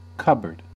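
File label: "cupboard", US English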